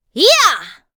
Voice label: Female Fight Appear; Mighty Party; Android